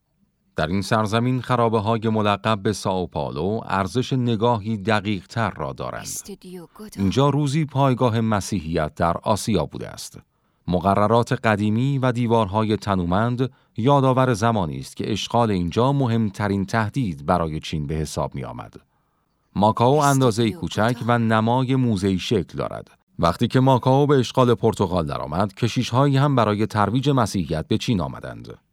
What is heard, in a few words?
documentary